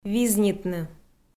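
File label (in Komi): "визнитны", Komi, female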